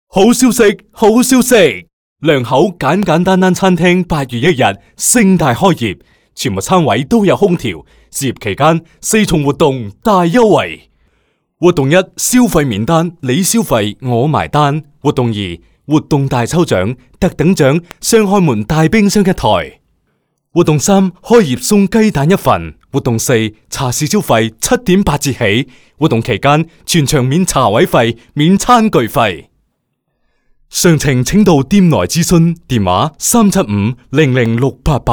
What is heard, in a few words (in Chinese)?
粤语促销 餐厅开业; 简简单单